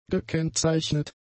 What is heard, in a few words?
"gekennzeichnet", German; adjectives